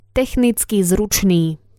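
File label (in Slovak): "technicky zrucny", Slovak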